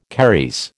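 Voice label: "caries", American English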